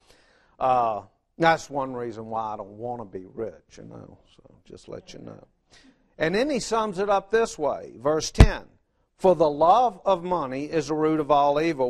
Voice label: English